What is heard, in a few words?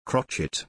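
"crotchet", English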